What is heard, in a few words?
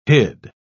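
"hid", English, British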